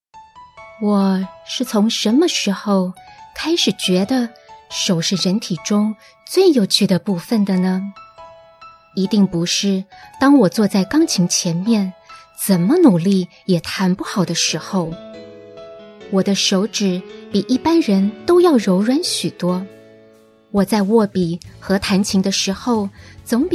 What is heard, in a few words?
recitation